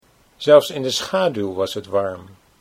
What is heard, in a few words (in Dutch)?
"zelfs in de schaduw", Dutch